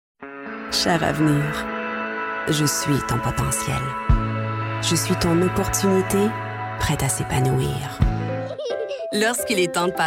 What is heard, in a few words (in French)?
Annonceur